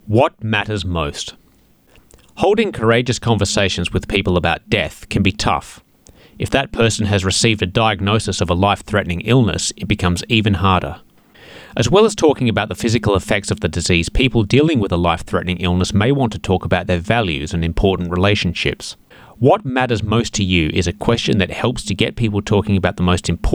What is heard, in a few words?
English; Palliative Care